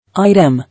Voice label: "Item", English